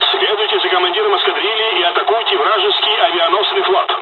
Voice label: flight, dialogs russian; War Thunder